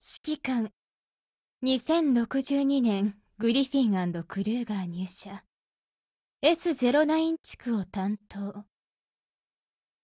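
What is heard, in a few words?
GAIN Japanese; P10C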